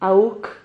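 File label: "auc", Spanish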